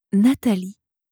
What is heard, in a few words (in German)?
"nathalie", franzoesische maedchennamen